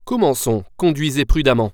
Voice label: Start Drive, french; waze